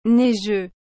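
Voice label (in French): "neigeux", French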